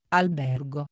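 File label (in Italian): "albergo", italiano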